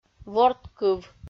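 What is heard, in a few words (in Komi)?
"вордкыв", Komi, female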